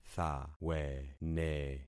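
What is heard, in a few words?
"thawene", concatenated syllables; Affixing experiment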